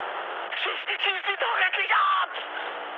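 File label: German aircraft damaged mood high, speech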